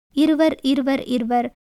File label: Tamil